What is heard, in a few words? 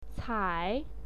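"cai", Mandarin Chinese, third tone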